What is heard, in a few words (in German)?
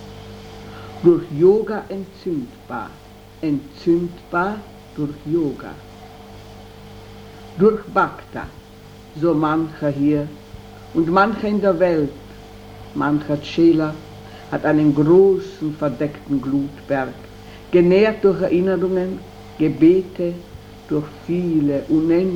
Meditation Flamme; Meditationen